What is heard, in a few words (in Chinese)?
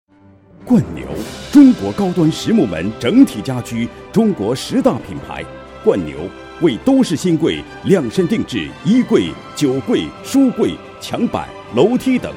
广告 品牌促销磁性中音配音; 冠牛木门